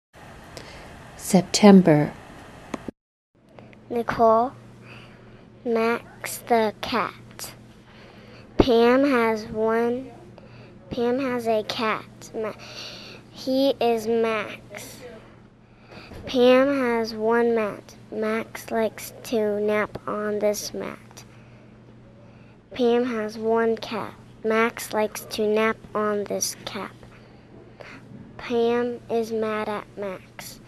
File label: Reads Podcast